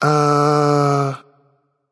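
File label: "seriously", male; Smite; baronsamedi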